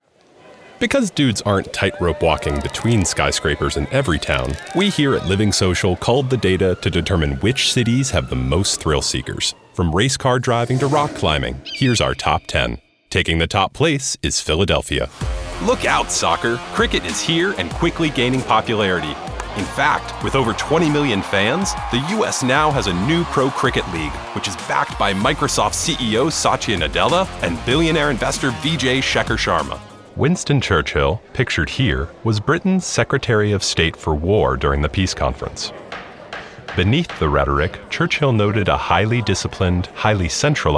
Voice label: Narration